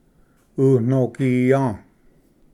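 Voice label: "unogiya", Cherokee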